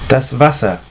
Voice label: "Wasser", German